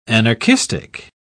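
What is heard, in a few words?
"anarchistic", British English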